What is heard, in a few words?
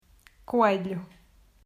"Coelho", Portuguese